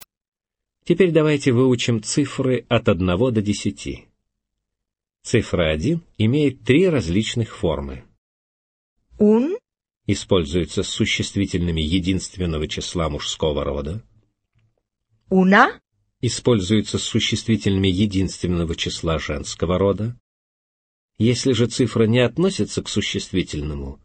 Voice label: chislitelnie na ispanskom